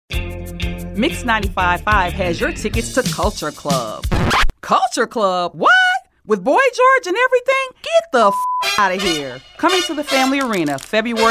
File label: 80s Pop Radio Radio Imaging